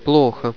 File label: bad, russian